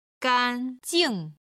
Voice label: "gan1jing4", Chinese; HSK3級